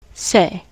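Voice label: "say", US English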